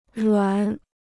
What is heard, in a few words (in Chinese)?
"软", Chinese